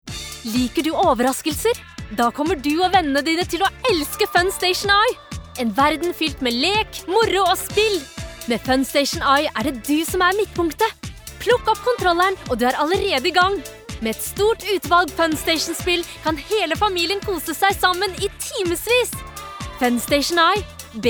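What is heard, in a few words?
Norwegian cheerful, kvinne; norsk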